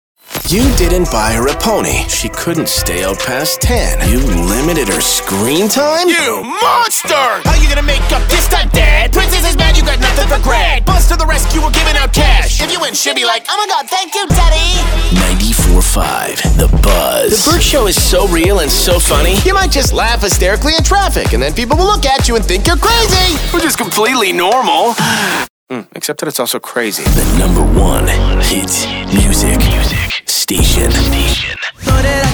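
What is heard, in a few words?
radio imaging men contemporary hit radio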